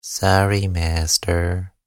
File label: Sorry Master Human Minion, evil voice; Horror Sound Effect